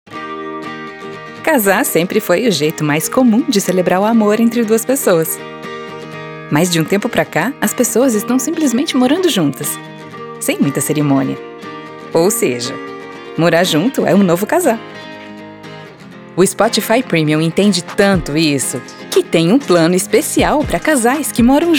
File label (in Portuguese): locucao